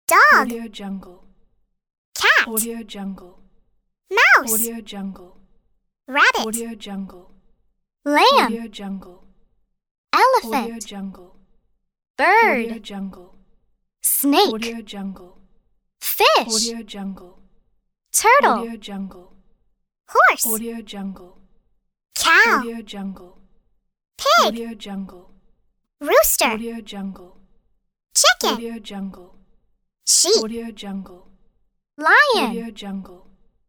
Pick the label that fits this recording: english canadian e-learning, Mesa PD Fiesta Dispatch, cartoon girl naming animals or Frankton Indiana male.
cartoon girl naming animals